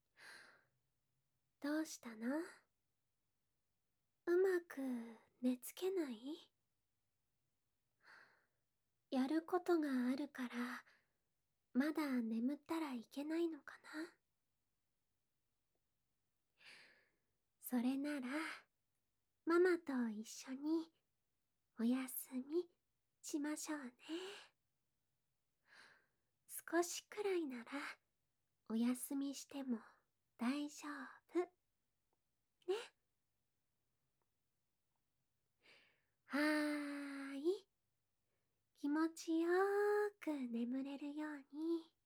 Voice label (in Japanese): 安眠誘導添い寝 BGMなし, ASMR; いのりママ